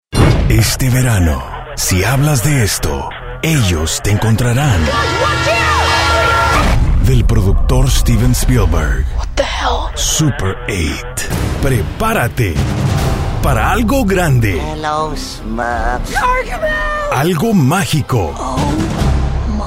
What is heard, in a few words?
Trailers